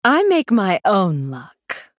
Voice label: female blood elf emote